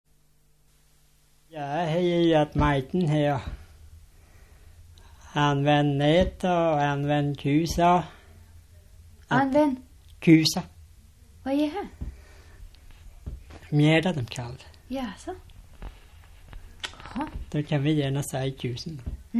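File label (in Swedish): Norsjö Västerbotten, dialekter; Folkminnen; Svenska sagor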